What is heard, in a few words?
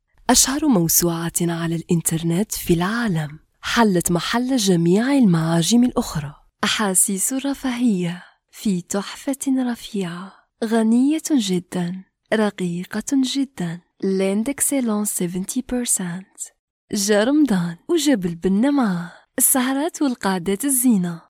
arabic algerian voiceover locutora arabe argelia